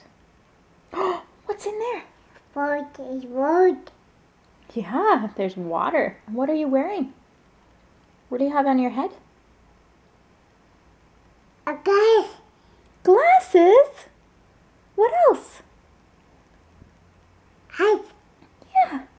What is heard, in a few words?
toddler talk